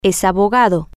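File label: he is a lawyer, spanish, female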